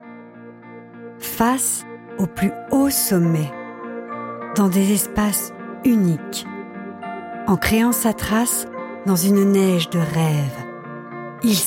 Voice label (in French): Voix Off PUB; Savoie Mt Blanc